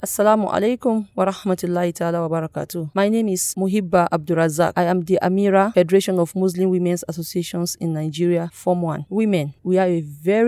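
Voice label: PLATEAU FEMALE ISLAMIC RELIGIOUS LEADER English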